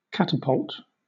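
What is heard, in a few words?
"catapult", British English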